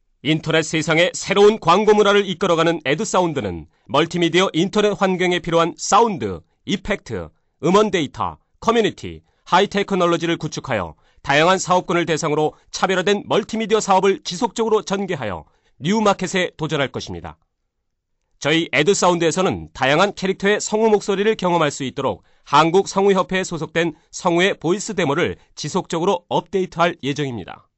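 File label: Korean, male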